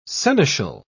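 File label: "seneschal", English, British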